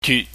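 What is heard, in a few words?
"dyut", Cantonese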